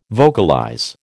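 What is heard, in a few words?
"vocalize", American English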